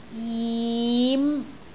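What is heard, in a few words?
"jim5", Cantonese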